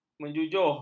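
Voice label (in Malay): "menjujuh", Malay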